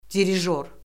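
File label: "dirijor", Azerbaijani; Orfoqrafiya Lüğəti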